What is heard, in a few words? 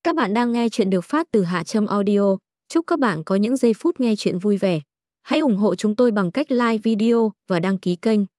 intro voice; Tang Hai Muoi Bon Khong Co Trong Ban Ve; HaTramAudio